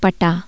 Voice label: Hindi to make someone agree